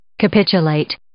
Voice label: "capitulate", English